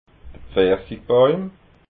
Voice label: "pfarsigbauim", alsace

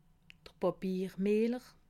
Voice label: "papier miller", alsace

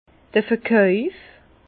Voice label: "verkauf", Bas Rhin; vin; vente

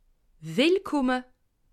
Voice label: "willkumme", alsace

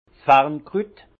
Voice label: "farnkrut", Alsace; fougère; vin

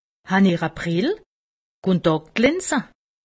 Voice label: "brille", alsace; medecine

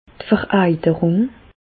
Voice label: "vereiterung", Bas Rhin; medecine; suppuration

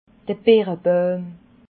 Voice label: "birabauim", alsace

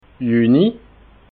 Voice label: "juni", alsace

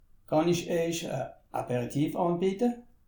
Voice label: "aperitif", alsace

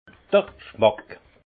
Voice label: "gschmack", alsace; vin

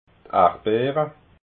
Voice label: "ardbeera", alsace

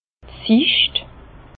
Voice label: "sicht", Bas Rhin; la vue; vin

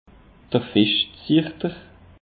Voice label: "fischzichter", alsace; peche

